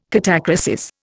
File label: "catachresis", Indian